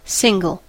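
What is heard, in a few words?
"single", English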